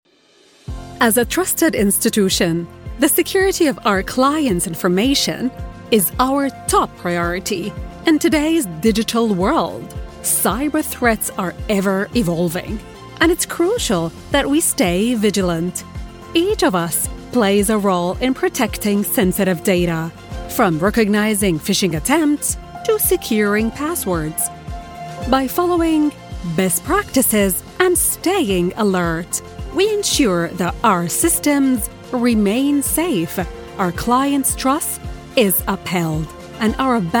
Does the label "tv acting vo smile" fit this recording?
No